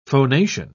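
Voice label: "phonation", British English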